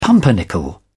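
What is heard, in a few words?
"pumpernickel", British English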